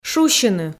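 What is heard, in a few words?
"шусьыны", Komi, female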